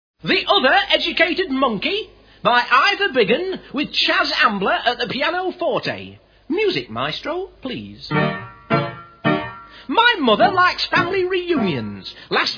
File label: Humorous Monologue